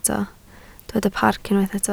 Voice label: "parc", Welsh